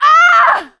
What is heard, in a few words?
hurt critical; survivor; producer